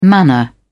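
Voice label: "manna", British English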